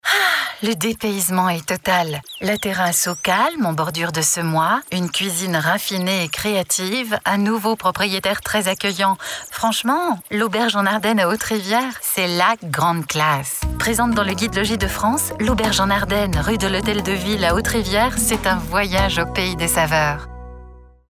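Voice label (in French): spot, spots radio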